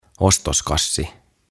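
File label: "Ostoskassi", "Carrier Bag", Finnish, English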